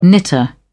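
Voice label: "knitter", English, British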